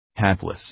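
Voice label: "hapless", English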